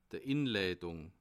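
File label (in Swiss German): "inleitung", Bas Rhin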